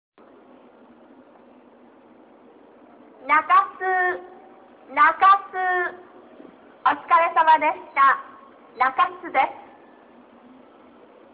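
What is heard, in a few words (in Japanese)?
全ホーム到着放送, 中津駅 旧放送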